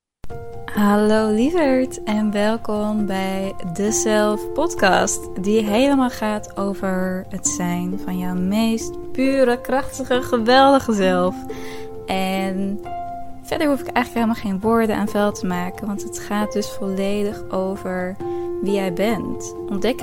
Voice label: 荷兰语女 外语 小语种 亲切

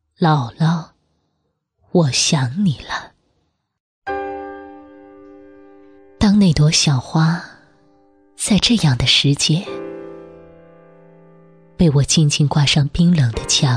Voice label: 朗诵; 姥姥我想你了

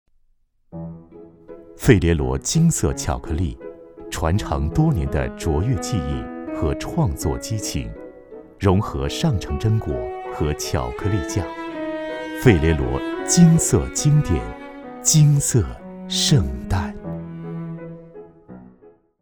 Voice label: 男国 广告 食品饮料 稳重; 费列罗巧克力

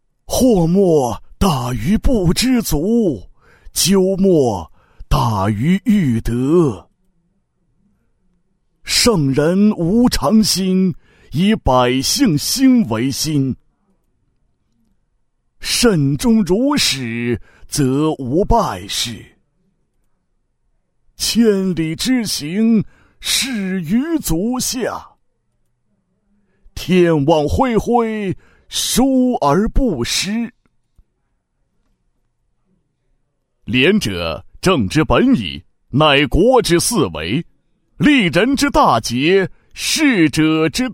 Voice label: 男国 动画 角色 模仿老人

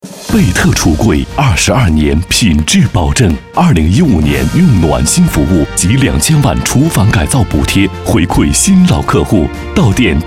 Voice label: 广告 家居家电 橱柜 浑厚, 男国; 倍特橱柜

